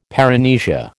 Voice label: "paramnesia", American

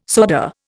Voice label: "soda", Indian

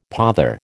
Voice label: "pother", American

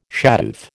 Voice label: "shadoof", American

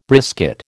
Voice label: "brisket", American

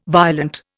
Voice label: "violent", Indian

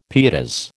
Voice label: "pietas", American

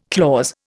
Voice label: "clause", Indian

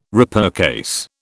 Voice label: "repurchase", British

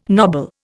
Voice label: "nobble", Indian

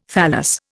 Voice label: "thallus", Indian

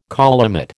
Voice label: "collimate", American

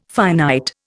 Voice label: "finite", Indian

